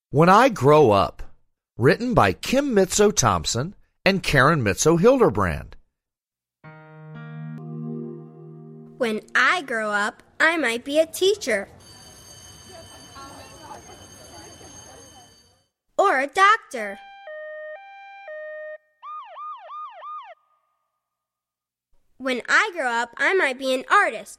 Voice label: English Narration; When I Grow Up; Twin Sisters